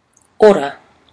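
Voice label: "ora", Māori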